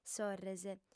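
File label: "sorres", Sardinian, female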